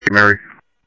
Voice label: Sheriff Central, scanner audio trunk; ThompsonPeakScot; MCSO Central